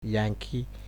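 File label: "yankee", Nigerian Pidgin, male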